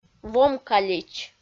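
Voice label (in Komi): "вомкалич", Komi, female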